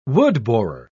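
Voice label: "woodborer", British English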